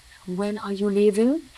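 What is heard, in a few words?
voice acting, es, female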